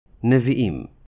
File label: "Neviim", Hebrew